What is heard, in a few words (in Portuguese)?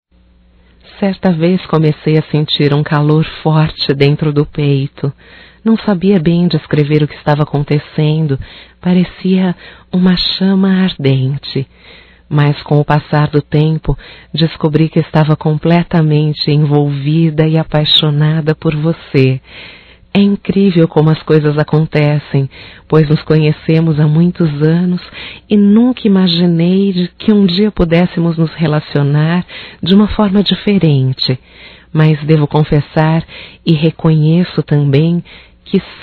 Romântico Feminino